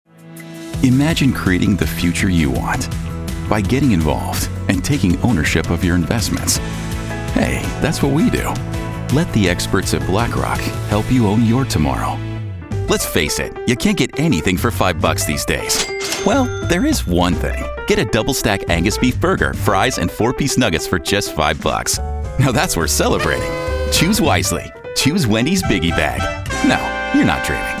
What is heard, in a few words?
Commercial